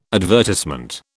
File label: "advertisement", British